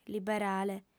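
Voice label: "liberale", female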